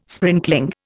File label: "sprinkling", Indian